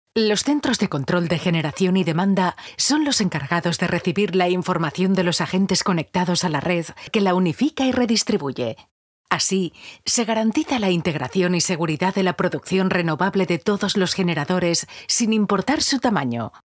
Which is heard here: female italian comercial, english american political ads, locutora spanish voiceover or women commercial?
locutora spanish voiceover